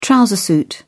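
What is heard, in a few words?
"trouser suit", British English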